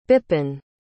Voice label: "bipin", pronounce